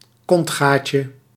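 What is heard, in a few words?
"kontgaatje", Dutch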